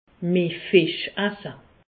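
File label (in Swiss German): "fisch", Alsatian; medecine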